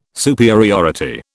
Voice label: "superiority", British